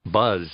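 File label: "buzz", English; verb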